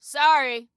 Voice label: Team Sorry, Female

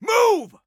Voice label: Team Move, Soldier Male; Soldier Male 02

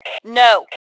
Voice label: Global No, Female; T2 Female 01

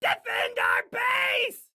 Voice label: Defend Base, Excited Guy

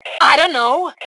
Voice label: Respond Dont Know, Female

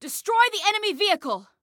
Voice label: Attack Vehicle, Female

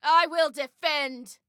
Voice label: Self Defend, Female

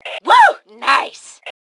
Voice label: Compliment Nice Move, Female

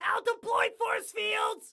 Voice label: Self Task Deploy Forcefields, Excited Guy

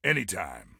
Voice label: Team Anytime, Soldier Male; Soldier Male 03

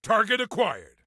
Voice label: Target Acquired, Soldier Male; Soldier Male 03

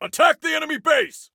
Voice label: Attack Base, Soldier Male

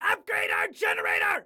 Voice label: Upgrade Generator, Excited Guy

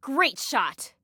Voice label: Compliment Great Shot, Female; Female 02

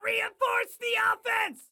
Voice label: Attack Reinforce, Excited Guy

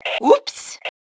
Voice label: Ooops, Female

